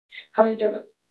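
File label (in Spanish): Voice Acting es F, Spanish, female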